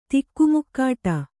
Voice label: "ತಿಕ್ಕುಮುಕ್ಕಾಟ", Kannada

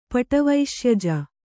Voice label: "ಪಟವೈಶ್ಯಜ", Kannada

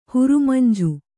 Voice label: "ಹುರಮಂಜಿ", Kannada

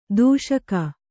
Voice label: "ದೂಷಿಕೆ", Kannada

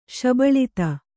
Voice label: "ಶಬಳತೆ", Kannada

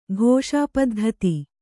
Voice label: "ಘೋಷಾಪದ್ಧತಿ", kannada

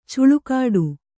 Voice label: "ಛಲಗೇಡಿ", kannada

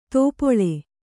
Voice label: "ತೋಪೊಳೆ", Kannada

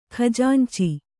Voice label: "ಖಜಾಂಚಿ", Kannada

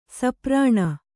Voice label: "ಸೂಪರಣ", kannada

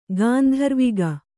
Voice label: "ಗಂಧರ್ವಕ", kannada

